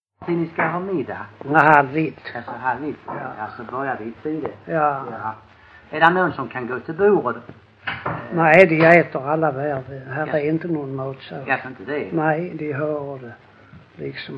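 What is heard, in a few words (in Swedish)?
Lackalänga Skåne, dialekter; Folkminnen; Svenska sagor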